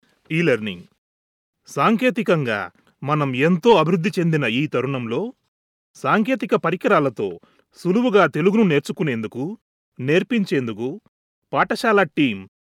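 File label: Telugu, E-Learning; GoLocalise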